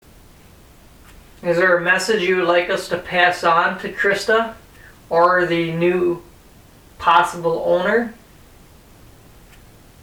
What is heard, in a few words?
"Yeah", EVP